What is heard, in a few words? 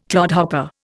"clodhopper", Indian